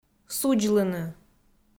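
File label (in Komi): "судзлыны", Komi, female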